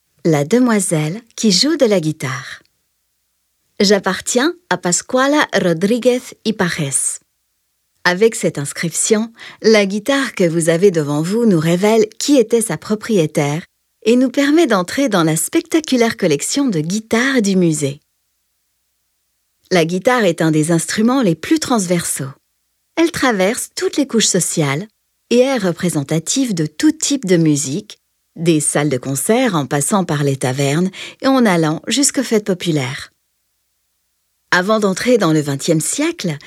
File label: audioguia french; delamusica